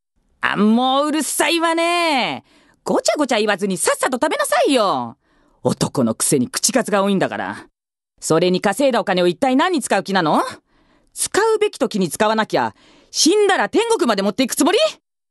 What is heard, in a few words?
female voice; セリフ